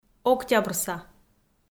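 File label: "октябрса" Komi-Zyrian, female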